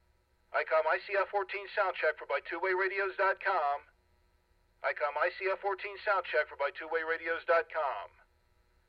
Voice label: icom ic f14; radio samples